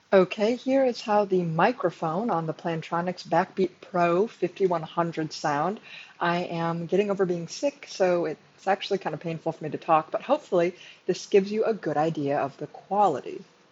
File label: Plantronics BackBeat Pro 5100 microphone